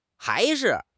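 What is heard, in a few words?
"hai2 shi5", Mandarin Chinese; HSK3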